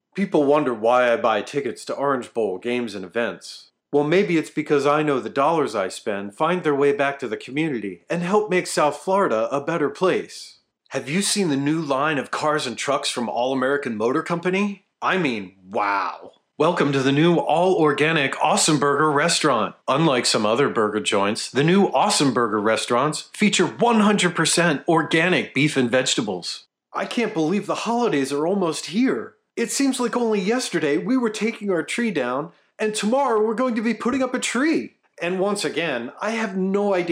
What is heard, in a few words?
Commercial VO